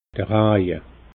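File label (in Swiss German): "raje", Alsatian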